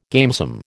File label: "gamesome", American